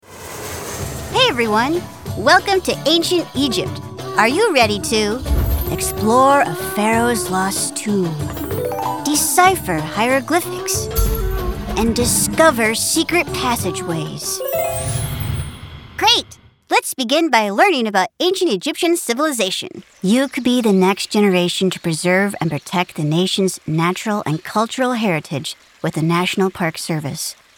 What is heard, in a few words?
ELearning